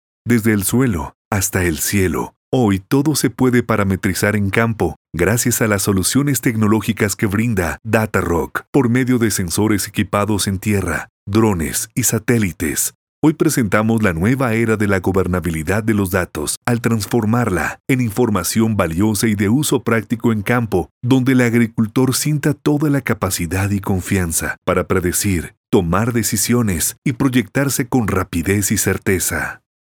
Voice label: spanish colombia male corporate

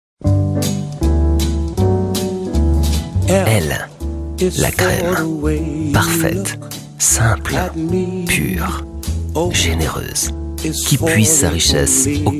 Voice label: french european male commercial

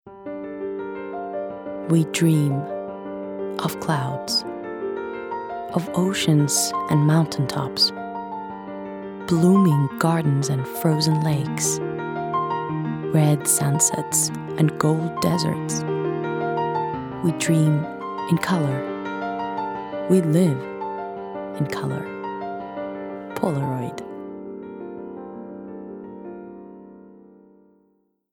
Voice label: english us and canada female narrative

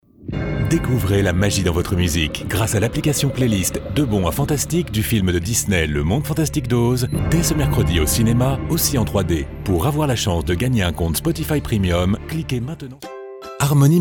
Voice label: french european male generic

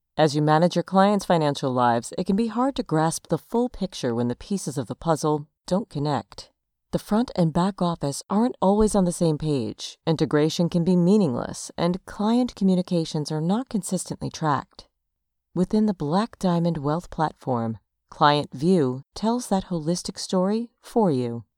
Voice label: english us and canada female corporate

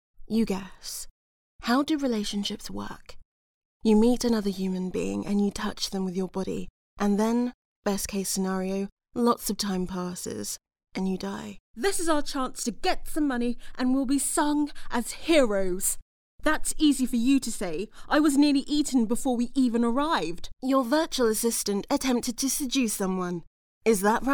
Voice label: english us and canada female

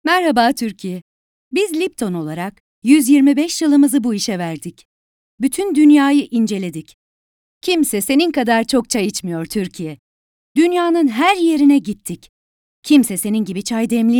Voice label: turkish female generic

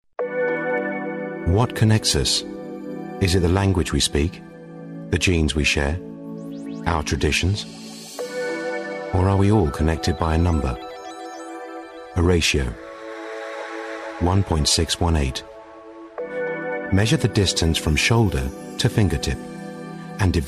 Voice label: english uk male commercial

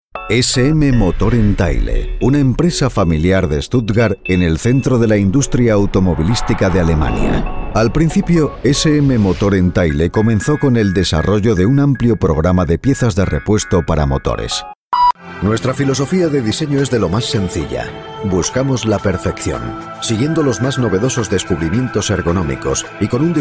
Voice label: spanish spain male corporate

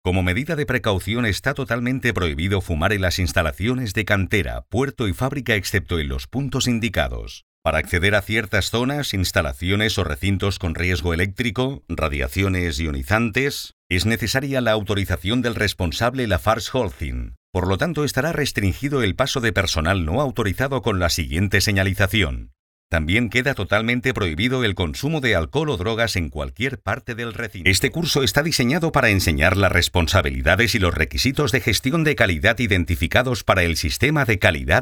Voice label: spanish spain male e learning

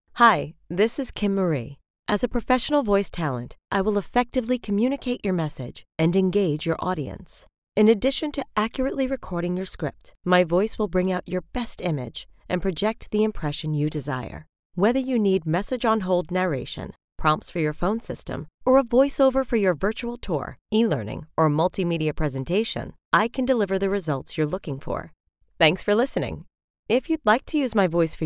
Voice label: english us and canada female generic

